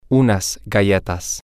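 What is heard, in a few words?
male, spanish; some Cookies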